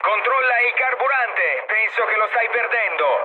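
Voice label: Italian tank damaged mood low, speech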